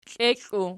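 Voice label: "klieklu" nominative, Archi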